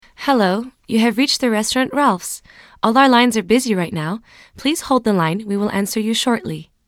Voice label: Eng